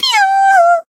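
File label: Voice damaged Japanese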